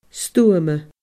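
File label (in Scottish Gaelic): "stuama", Scottish Gaelic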